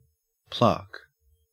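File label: "plaque", Australian English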